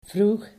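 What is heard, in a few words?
"vroeg", Dutch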